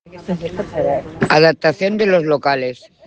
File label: Voice message